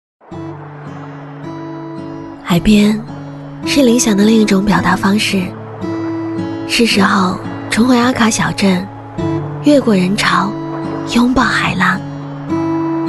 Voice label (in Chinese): 女 旁白 抖音氛围感 素人